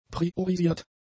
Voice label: "priorisiert", German; adjectives

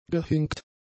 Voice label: "gehinkt", German; adjectives

